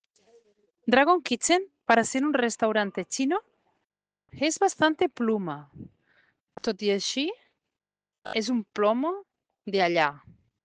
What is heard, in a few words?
Voice message